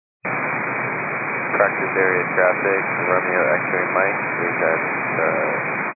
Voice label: 122.925 MHz; scanner radio